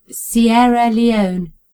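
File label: "sierra leone", British English; gcompris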